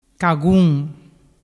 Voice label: "cagun", dialettu

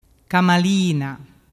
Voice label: "camalina", dialettu

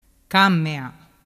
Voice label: "camea", dialettu

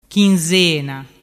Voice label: "chinzena", dialettu

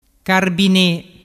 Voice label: "carbine", dialettu

